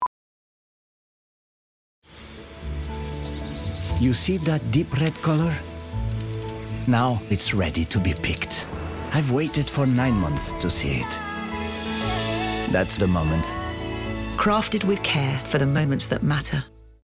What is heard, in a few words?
ENG MEX; NES GOLD; Farmer